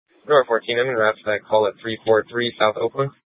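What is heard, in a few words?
police department dispatch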